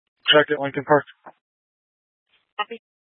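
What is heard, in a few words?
Incident Tactical, scanner audio recording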